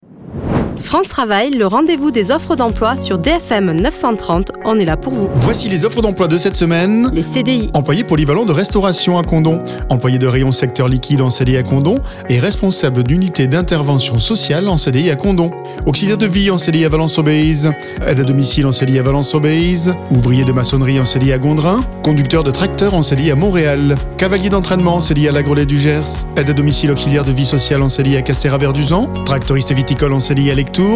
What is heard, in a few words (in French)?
PUB CDI